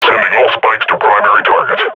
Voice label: firing, combine grunt; Half Life Model Pack

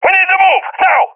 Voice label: request move, balkan; vo; balkan epic

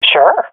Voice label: agree, swat fem; vo; Counter Strike Model Pack; CS:GO

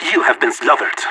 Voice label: last man standing, vo agents; leet

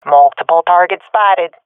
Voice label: sees multiple enemies, swat fem; vo; agents; Source Model Packs; Counter Strike Model Pack; CS2

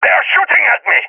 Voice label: taking fire, balkan; vo; balkan epic

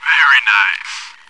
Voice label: very nice, announcer; cs2 classic

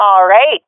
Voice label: sees team combo, swat fem; vo; agents; Source Model Packs; Counter Strike Model Pack; CS2

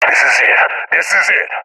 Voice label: scared; Counter Strike Model Pack; CS:GO

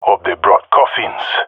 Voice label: attacking, jungle male; vo; agents; Source Model Packs; Counter Strike Model Pack; CS2; jungle male epic

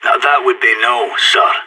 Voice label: negative, sas, vo; Counter Strike; CS:GO

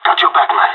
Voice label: covering friend, pirate; Counter Strike Model Pack; CS:GO

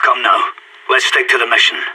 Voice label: pep talk, sas, vo; Counter Strike; CS:GO

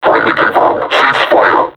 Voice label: taunt, vo combine suppressor; Half Life Alyx

